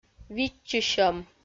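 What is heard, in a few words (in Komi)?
"видзчысьӧм", Komi, female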